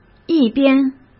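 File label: "一边", Mandarin Chinese; chinese-flashcards; hsk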